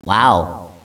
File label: "wow", echo inside caves, underground; DS; ML BOWSERS INSIDE; Luigi